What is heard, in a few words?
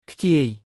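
Khmer "ខ្ជាយ"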